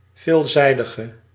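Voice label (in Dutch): "veelzijdige", Dutch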